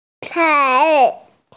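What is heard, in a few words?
"chai2", Mandarin Chinese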